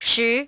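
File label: "ten", English; iOS 4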